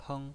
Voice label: "peng1", pinyin

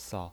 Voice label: "sao0", pinyin

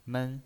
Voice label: "men1", pinyin

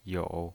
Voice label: "you3", pinyin

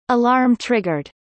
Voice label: current triggered, en-US

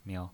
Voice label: "miao0", pinyin

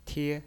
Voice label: "tie1", pinyin

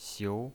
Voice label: "xiu2", pinyin

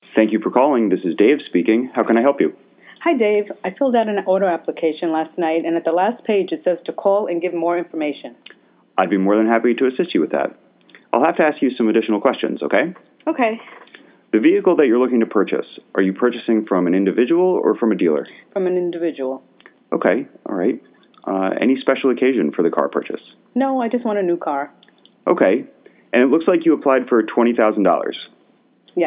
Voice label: english

